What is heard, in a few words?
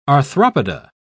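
"arthropoda", English, British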